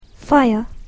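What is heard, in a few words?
"fire", English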